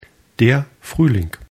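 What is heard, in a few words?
"frühling", German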